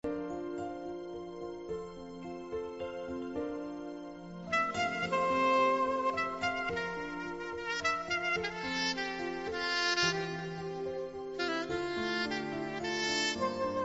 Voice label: romântica, Telemensagem Romântica GLS – Voz Feminina

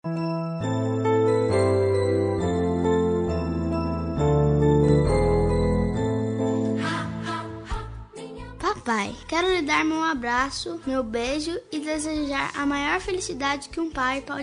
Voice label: masc infantil, voz infantil masculina; Dia dos Pais

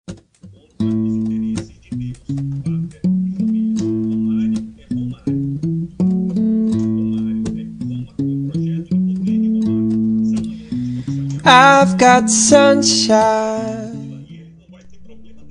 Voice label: distante masc, Voz Masculina; dia das maes para mae distante voz masculina

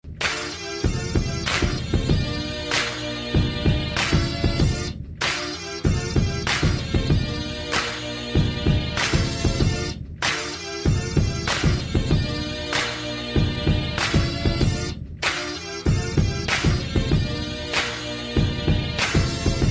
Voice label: enteado masc; Aniversário de Enteado – Voz Masculina; Telemensagens para todas as ocasiões

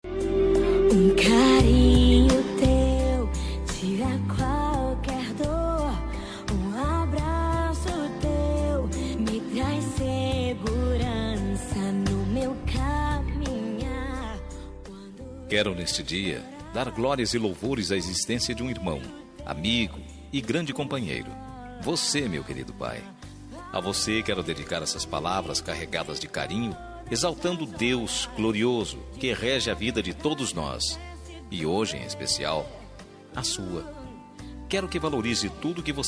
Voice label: dia dos pais gospel masculina; evangelico voz

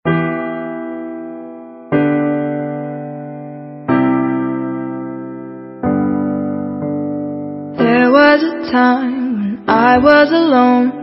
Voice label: conquista voz masculina, Telemensagem de Conquista – Romântica – Voz Masculina; Telemensagens

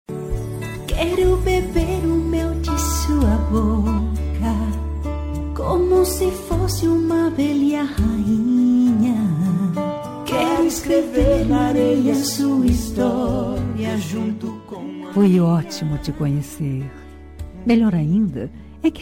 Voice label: inicio fem, suave voz feminina; Dia dos Namorados

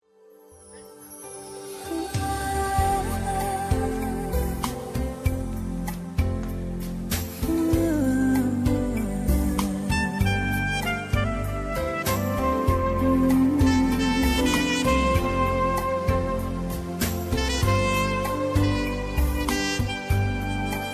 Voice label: maternidade fem, Telemensagem de Maternidade, Voz Feminina

